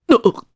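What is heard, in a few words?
Vox Sur Japanese; kingsraid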